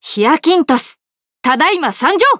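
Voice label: start, Japanese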